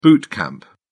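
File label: "boot camp", British English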